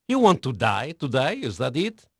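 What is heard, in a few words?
Portuguese male, voice; Pirates Of The Caribbean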